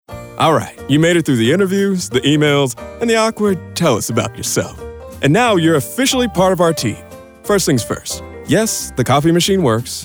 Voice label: Narration